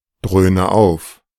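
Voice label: "dröhne auf", German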